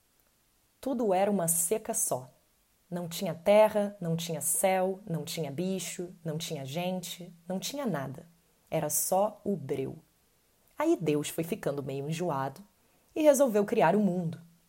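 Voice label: Brazilian Portuguese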